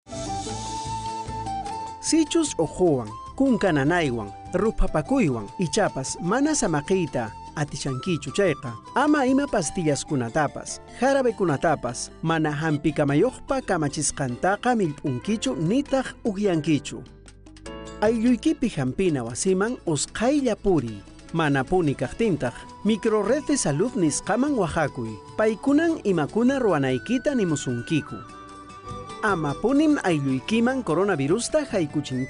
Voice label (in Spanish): quechua cusco collao, spot de radio; en caso de presentar sintomas; sospecha o confirmacion de contagio